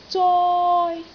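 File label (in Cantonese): "zoi1", Cantonese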